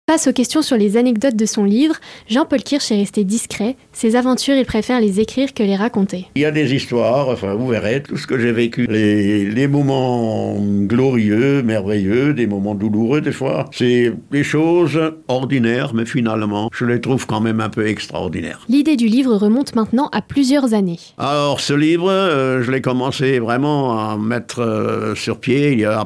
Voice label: interview radio